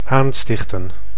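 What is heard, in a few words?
"aanstichten", Dutch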